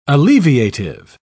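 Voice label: "alleviative", British English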